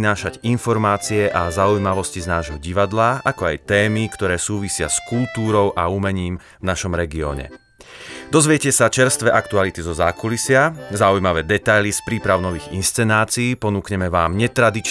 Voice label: Podcast PROMO